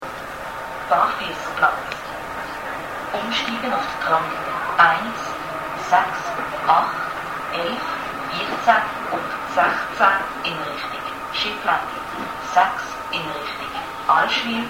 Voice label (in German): Haltestellenansagen, Schweiz; Basel, Barfüsserplatz; Barfüsserplatz. Umsteigen zu den Tramlinien 1, 6, 8, 11, 14 und 16 in Richtung Schifflände, 6 in Richtung Allschwil und 16 in Richtung Brude